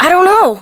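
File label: Voice, English; New War; Operator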